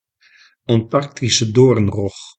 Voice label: "antarctische doornrog", Nl